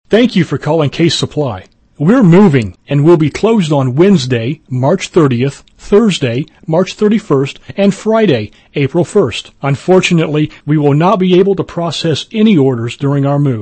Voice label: On Hold